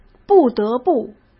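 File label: "不得不", Mandarin Chinese; hsk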